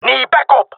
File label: request backup, jungle male; vo; agents; Source Model Packs; Counter Strike Model Pack; CS2